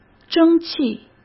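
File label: "争气", Mandarin; chinese flashcards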